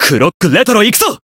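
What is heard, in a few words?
Voice Japanese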